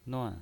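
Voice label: "nuan" fourth tone, pinyin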